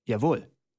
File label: german voice; wyrmsun